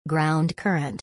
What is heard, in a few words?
"Ground Current", English; thunder-and-lightning-in-english